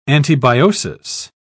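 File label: "antibiosis", English, British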